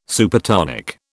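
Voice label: "Supertonic", British English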